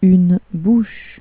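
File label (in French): "bouche", French; tdegames; ktuberling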